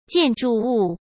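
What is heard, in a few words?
"建筑物", Mandarin Chinese; chinese flashcards; hsk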